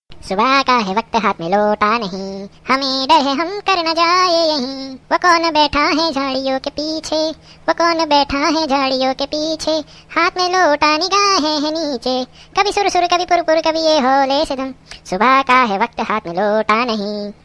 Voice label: Very Comedy Hindi Ringtone; Subah Ka Hai Waqt Hath Main Lota Nahin